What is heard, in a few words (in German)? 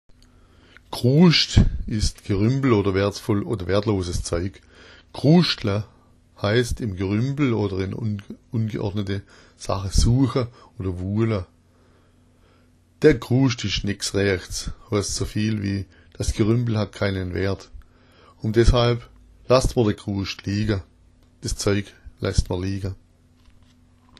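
kruschtla, schwäbisch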